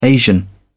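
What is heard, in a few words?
"asian", English, British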